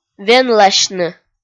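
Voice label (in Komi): "венласьны", Komi, female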